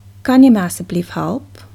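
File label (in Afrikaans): "kan jy help", Afrikaans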